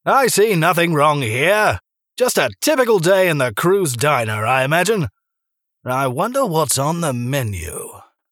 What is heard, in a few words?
english british animation